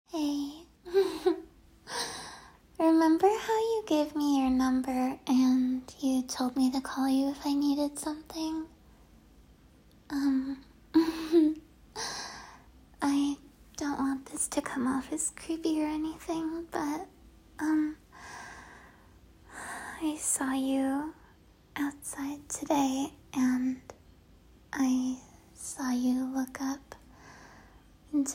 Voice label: voicemail neighbour